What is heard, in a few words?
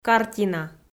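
"картина", Komi, female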